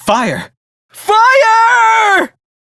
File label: Crew See Fire, Male; Warframe; Railjack; Perrin Sequence